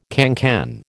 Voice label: "cancan", American

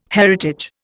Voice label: "heritage", Indian

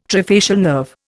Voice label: "trifacial nerve", Indian

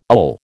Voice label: "ill", American

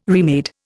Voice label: "remade", Indian

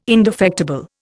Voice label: "indefectible", Indian

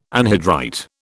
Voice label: "anhydrite", British

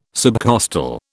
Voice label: "subcostal", British

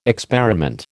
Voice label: "experiment", American

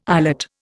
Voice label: "eyelet", Indian

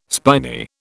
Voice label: "spiny", British

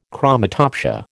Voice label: "chromatopsia", American

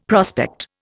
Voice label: "prospect", Indian; Dictionary

